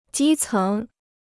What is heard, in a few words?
"基层", Chinese